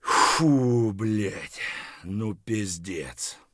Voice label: mutter; Escape from Tarkov